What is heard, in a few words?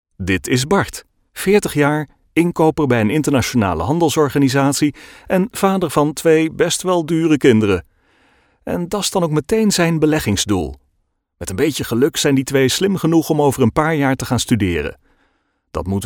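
Dutch elearning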